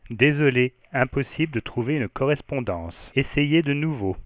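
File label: sorry no match found, French, Voice Sounds; Samsung SGH-i310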